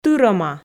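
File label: "тырӧма", Komi, female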